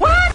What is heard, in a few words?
what question shock; ooh ahh